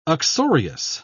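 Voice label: "uxorious", British English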